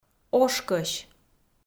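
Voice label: "ошкӧсь", Komi, female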